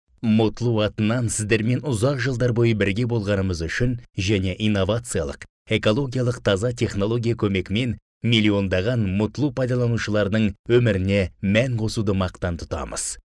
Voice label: Kazakca Erkek, Erkek Ses

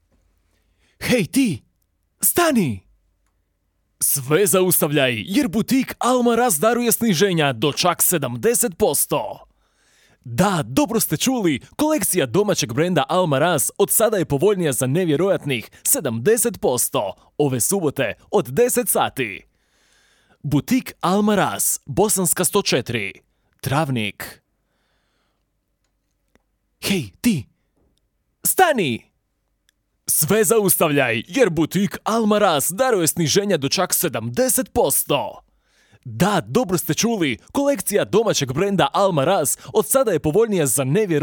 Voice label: Bosnakca Erkek, seslendirme

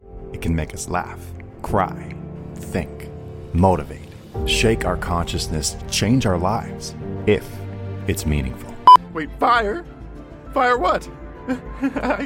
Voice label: Amerikan Ingilizcesi Erkek; Amerikan İngilizcesi Seslendirme

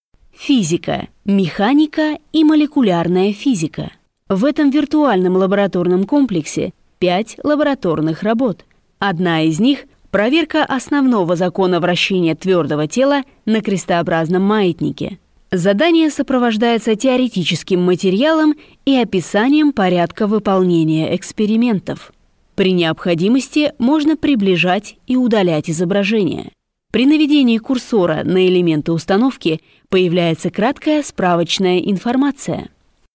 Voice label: Rusca Kadin, Seslendirme, Kadın Ses

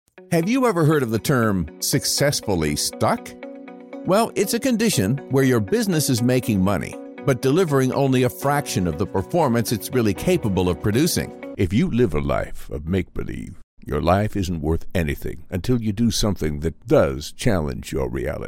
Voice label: Amerikan Ingilizcesi Erkek

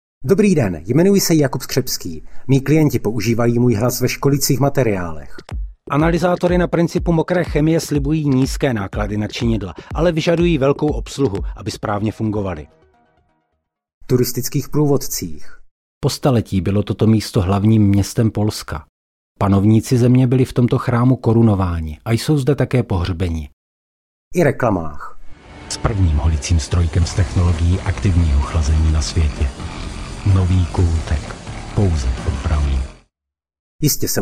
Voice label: Cekce Erkek, Erkek Ses

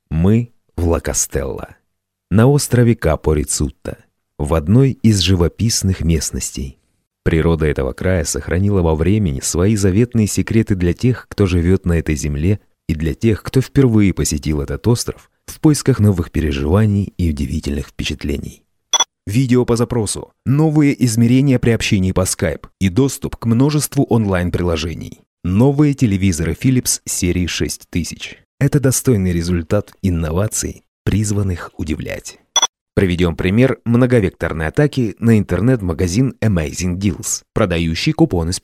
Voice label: Rusca Erkek, seslendirme

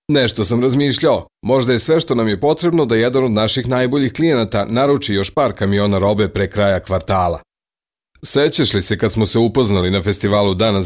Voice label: Sirpca Erkek, sirpca seslendirme; erkek sesler

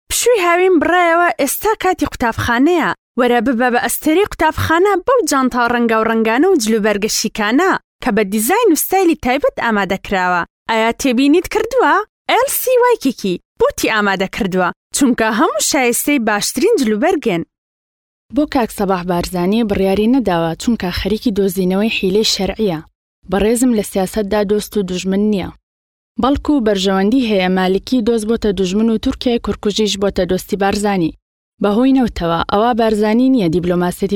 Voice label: Kurtce Kadin Soranice; kurtce seslendirme; kadin sesler

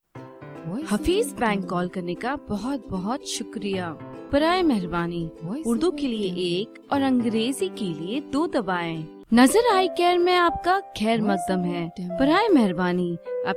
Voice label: Hintce Kadin Hindu Urduca Gujarati; seslendirme

